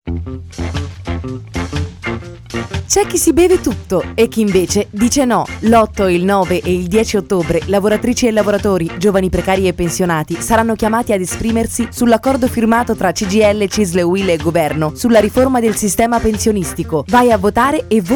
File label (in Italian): spot